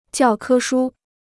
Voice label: "教科书", Chinese

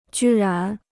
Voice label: "居然", Chinese

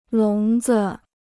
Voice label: "笼子", Chinese

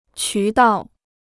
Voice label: "渠道", Chinese

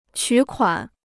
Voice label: "取款", Chinese

